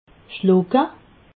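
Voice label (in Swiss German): "schlucke", Alsatian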